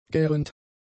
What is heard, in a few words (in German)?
"gärend", German; adjectives